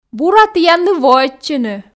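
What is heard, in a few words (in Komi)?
бура тіянлы воӧдчыны, Komi, female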